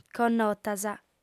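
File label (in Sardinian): "connotas", female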